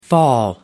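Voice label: "fall", English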